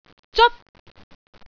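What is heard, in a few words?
"zeot1", Cantonese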